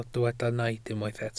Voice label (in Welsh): "naid" Welsh